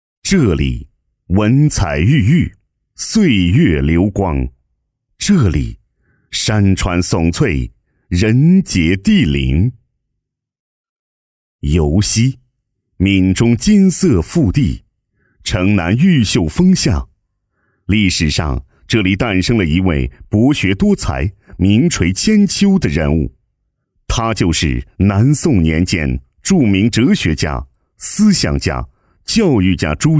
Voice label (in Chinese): 男 地区宣传 宣传配音; 福建尤溪 朱熹故里